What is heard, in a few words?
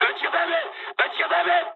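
player friendly fire mood high, speech japanese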